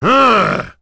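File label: Vox Deny, voices heroes English; Kaulah; kingsraid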